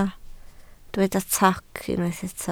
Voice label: "toc", Welsh

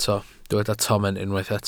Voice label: "tomen", Welsh

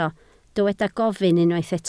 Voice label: "gofyn", Welsh

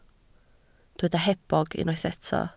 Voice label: "hebog", Welsh

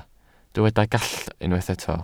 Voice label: "gallt", welsh

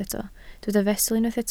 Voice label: "fesul", Welsh

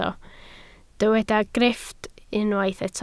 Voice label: "grifft", Welsh

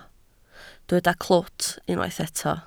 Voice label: "clwt", Welsh

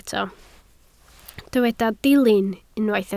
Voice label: "dilyn", Welsh

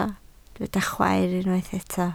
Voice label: "chwaer", Welsh

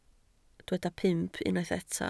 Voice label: "pump", Welsh

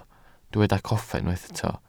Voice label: "coffa", Welsh

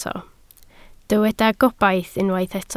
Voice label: "gobaith", Welsh

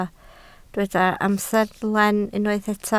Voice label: "amserlen", Welsh

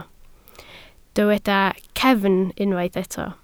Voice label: "cefn", Welsh

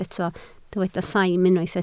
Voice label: "saim", Welsh

